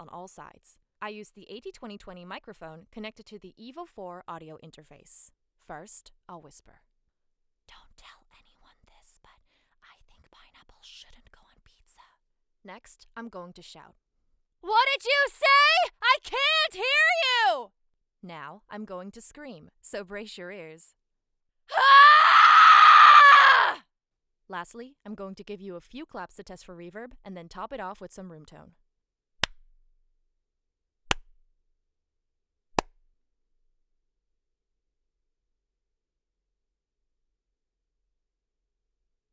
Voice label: Raw Studio Sample, voice actress